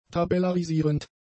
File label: "tabellarisierend", German; adjectives